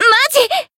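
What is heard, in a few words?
Voice damaged, Japanese; millecha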